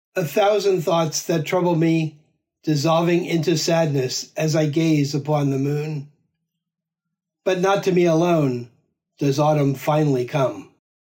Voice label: Poem English; one-hundred-poems-from-old-japan